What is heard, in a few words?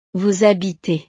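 "vous habitez", French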